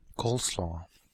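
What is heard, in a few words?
"coleslaw", Canadian English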